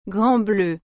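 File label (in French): "grand bleu", French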